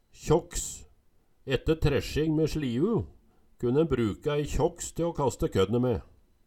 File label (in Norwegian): kjaks, Numedalsmål